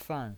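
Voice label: "fan" Mandarin Chinese, fourth tone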